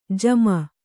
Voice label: "ಜಮ", kannada

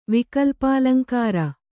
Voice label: "ವಿಕಲ್ಪಾಲಂಕಾರ", kannada

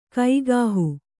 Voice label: "ಕೈಗಾಹು", Kannada

